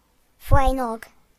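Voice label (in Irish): "pod", Irish; gcompris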